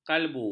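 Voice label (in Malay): "kalbu", Malay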